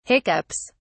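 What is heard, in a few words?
"hiccups", English, British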